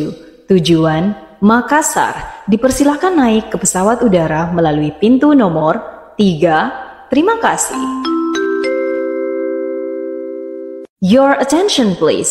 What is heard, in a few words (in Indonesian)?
nada dering suara operator bandara, indonesia